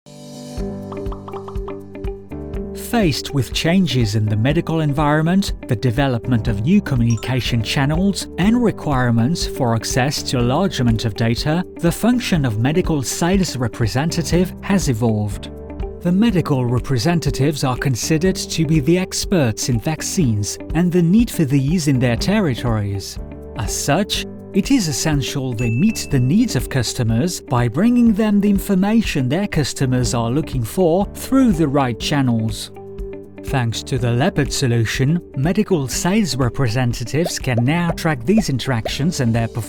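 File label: English Explainer motion design; Sanofi Pasteur UK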